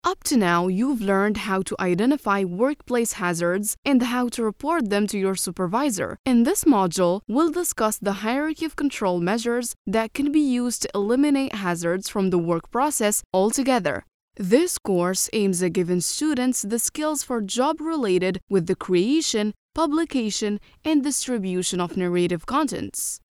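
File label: Arabic English female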